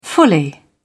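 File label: "fully", British English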